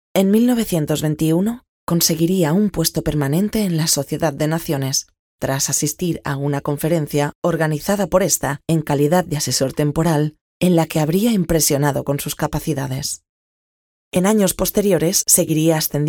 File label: locutora spanish voiceover